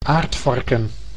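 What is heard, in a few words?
"aardvarken", Dutch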